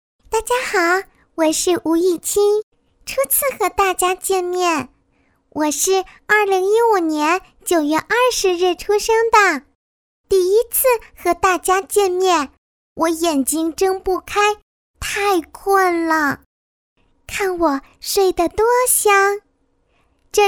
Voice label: 模仿 女童配音, 国语女声配音

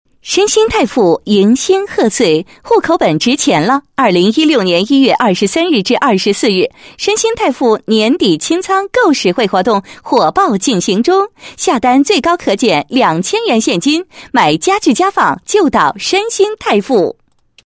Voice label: 女 促销 岁末清仓促销; 申新

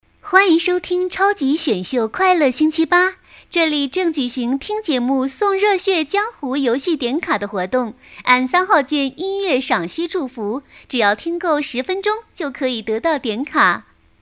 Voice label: 女 广告, 国语女声配音 方言童声; 快乐星期吧

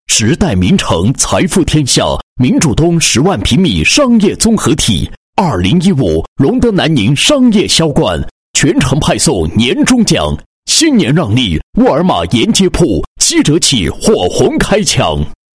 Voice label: 男 房地产广告 楼盘招商宣传; 时代茗城、荣和等楼盘

